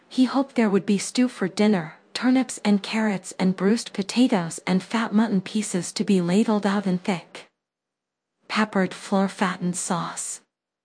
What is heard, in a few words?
whisper